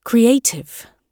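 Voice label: "creative", British English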